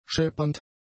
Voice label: "scheppernd", German; adjectives